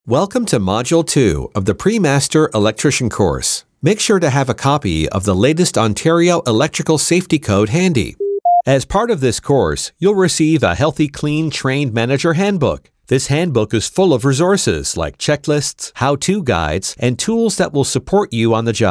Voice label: e learning